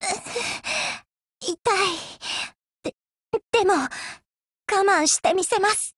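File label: BREAK, Japanese; GF Alarm; FAMAS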